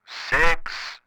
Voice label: CB radio male voice says